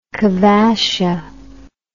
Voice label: "cavacha", English

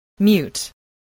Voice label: "mute", voice

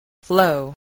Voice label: "flow", spelling

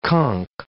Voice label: "conk", English

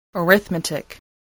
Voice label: "arithmetic", English

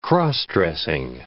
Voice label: "cross-dressing", english

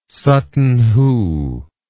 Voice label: "sutton hoo", English

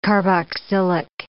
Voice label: "carboxylic", English

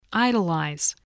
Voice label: "idolize", voice spelling

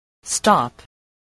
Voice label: "stop", voice

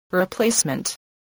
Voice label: "replacement", English

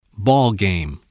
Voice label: "ball game", english

